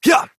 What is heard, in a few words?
Horse Sprint Adult; Duviri